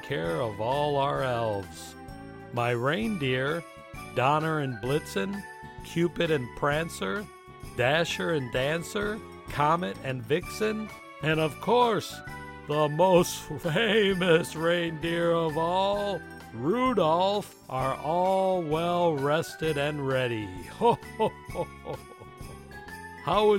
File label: character Santa Claus